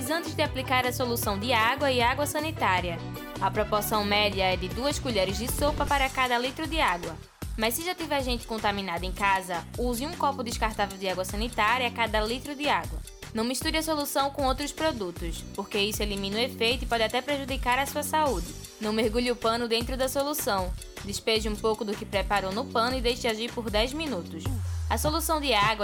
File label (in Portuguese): SPOT COMO UTILIZAR SOLUCAO DE AGUA SANITARIA